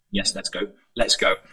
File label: Voice Acting, es